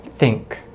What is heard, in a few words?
"think", American English; Consonants in American English; easypronunciation